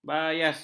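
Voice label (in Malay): "bayas", Malay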